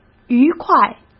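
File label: "愉快", Mandarin Chinese; hsk; chinese-flashcards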